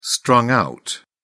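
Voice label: "strung-out", British English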